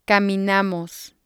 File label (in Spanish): "caminamos", spanish